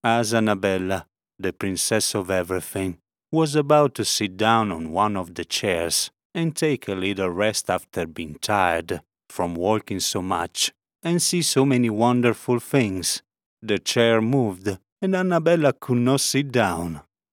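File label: ENG ITA accent AUDIOBOOK, English Italian accent audiobook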